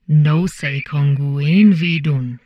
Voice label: humanoid portrait female; Stellaris; humanoids